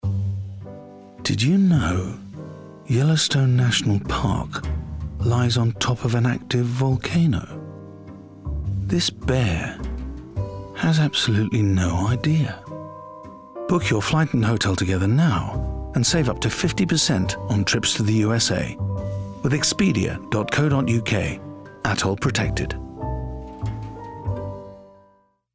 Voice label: Announcer, Humourous, Neutral RP; EXPEDIA

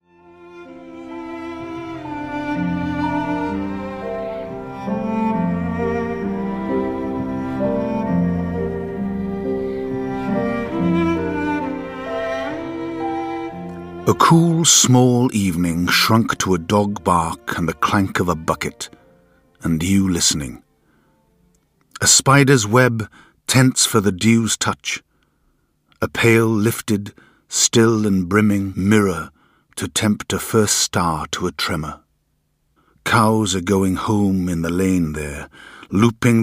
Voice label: Poem, Lyrical, Neutral; Full Moon & Little Frieda (Ted Hughes - BBC Radio 4); SOMETHING UNDERSTOOD

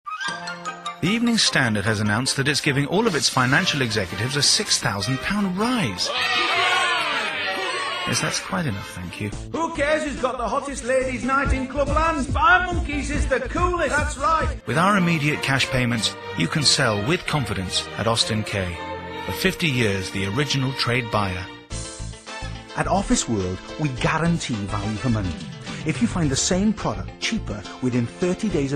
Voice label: COMMERCIAL VOICE REEL

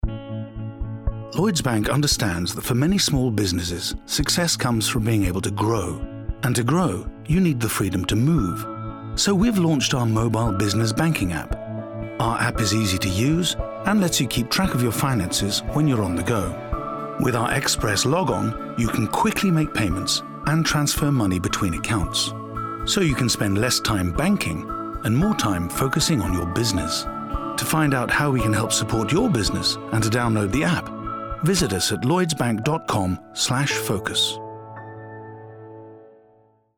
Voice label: Announcer, Assured, Neutral RP; LLOYDS BANK

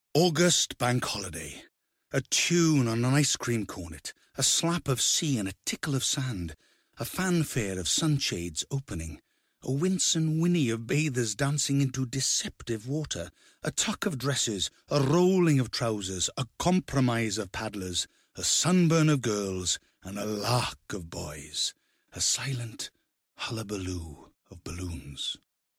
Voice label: Short Story, Excited, Welsh Lilt; Holiday Memory (Dylan Thomas)

